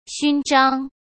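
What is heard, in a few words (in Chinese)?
"勋章", Mandarin Chinese; hsk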